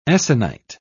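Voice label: "essonite", British English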